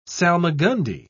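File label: "salmagundi", British English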